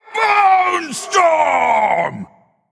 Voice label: Male Skeleton Attack